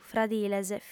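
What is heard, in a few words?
"fradiles", Sardinian, female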